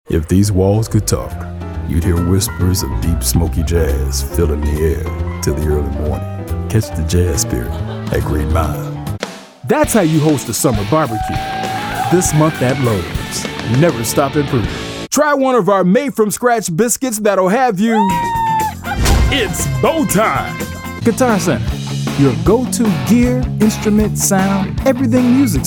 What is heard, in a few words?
men commercial; Friendly, believable, and confident. Cool and upbeat, with a wide range from professional and informative to animated and fun.